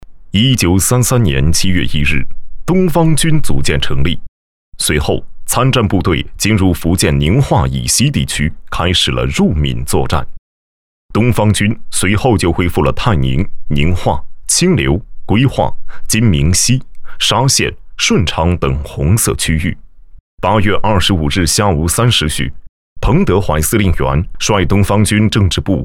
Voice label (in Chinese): 纪录片 人文历史 战争